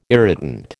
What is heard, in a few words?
"irritant", American